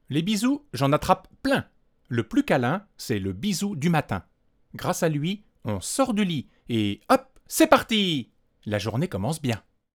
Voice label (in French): test micro c414 lecture enfant